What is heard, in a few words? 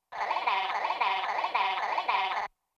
robot voice high end cheesy nonsense cartoony